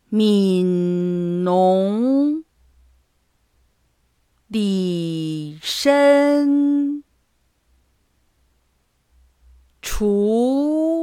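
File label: Speech Contest Minnong slow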